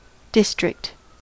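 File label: "district", US English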